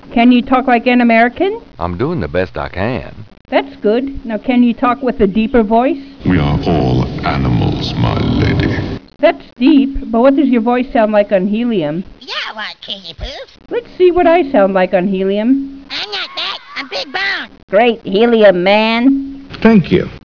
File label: helium